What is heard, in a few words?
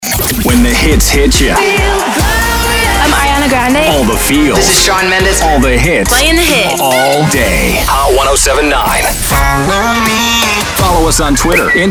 Top 40 Imaging